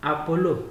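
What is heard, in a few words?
"apolo", Nigerian Pidgin, male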